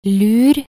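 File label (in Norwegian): "lur", Norwegian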